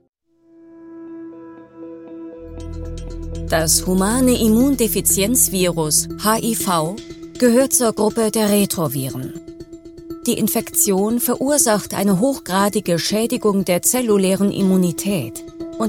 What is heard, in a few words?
german medical narration, german voice actors; Quente, Autêntica, Versátil; Atriz de Voz Alemã Profissional